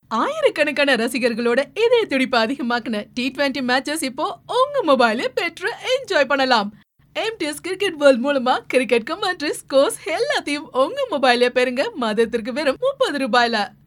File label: IVR prompt tamil